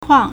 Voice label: "kuang4", Chinese